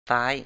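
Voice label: "ซ้าย" Thai, male; 'left'; sá:y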